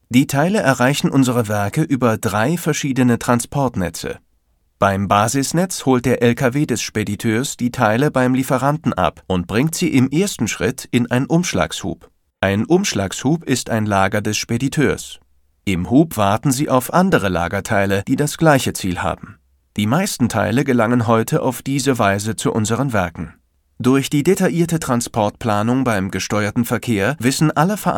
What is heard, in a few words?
elearning; bmw